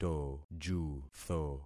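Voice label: "tojutho", concatenated syllables; Affixing experiment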